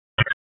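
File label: Raleigh Police North District Tactical, p25; wake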